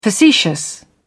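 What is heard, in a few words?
"facetious", British English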